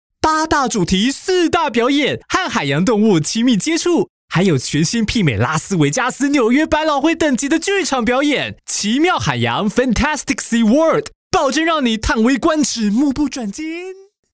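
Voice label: Taiwan, male, commercial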